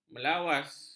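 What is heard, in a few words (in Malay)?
"melawas", Malay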